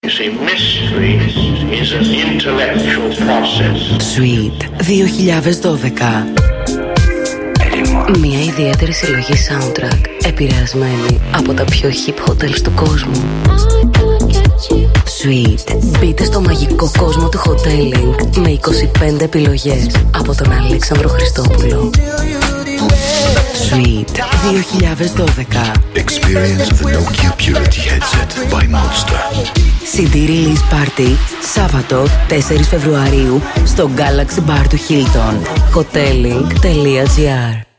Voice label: Radio Spot